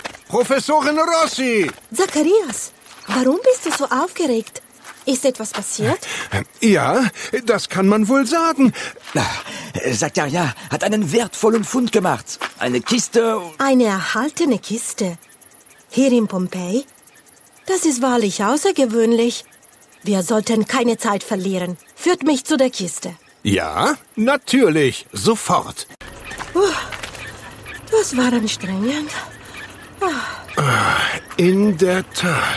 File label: German with accent Hoerspiel